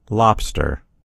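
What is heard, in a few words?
"lobster", US English; gcompris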